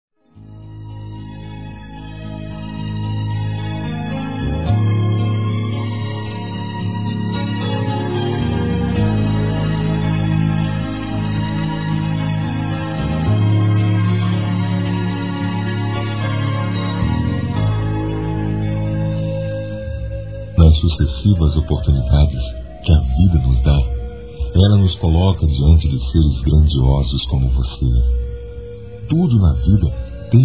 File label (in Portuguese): Aniversário Comadre Masculino; Aniversários; Aniv Familiar